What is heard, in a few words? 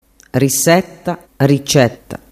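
"risetta vedi ricetta", Sicilian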